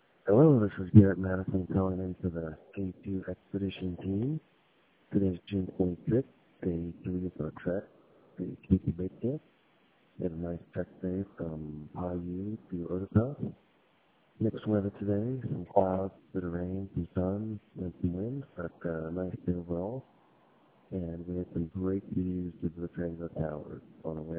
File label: voicemail